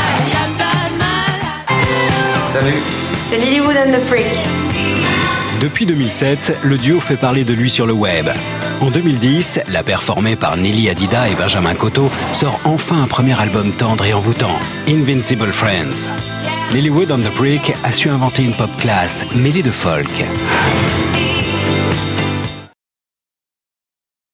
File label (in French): Voix Off Emission TV; Victoires de la Musique 2011